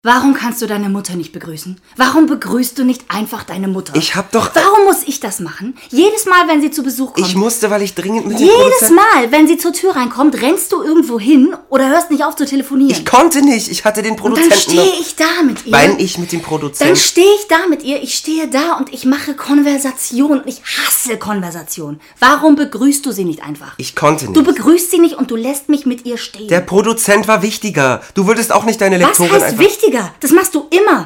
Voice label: Hörspiel Szene Ehestreit

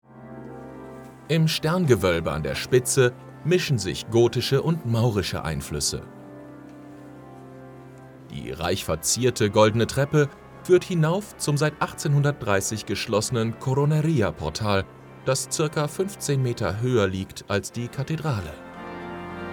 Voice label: Doku; Jakobsweg; LOFT VOICES

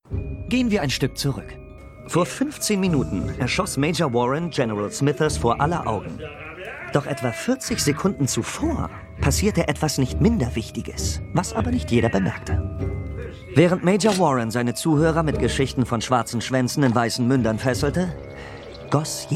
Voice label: ERZÄHLER; Hateful8; LOFT VOICES

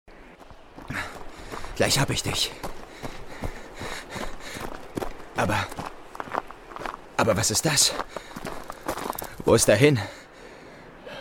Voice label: Hörspiel; Madeleine de Scuderi

